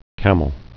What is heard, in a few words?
"camel", English